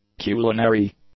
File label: "culinary", English; adjectives